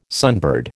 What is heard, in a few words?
"sunbird", American